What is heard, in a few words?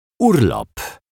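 "urlop", Polish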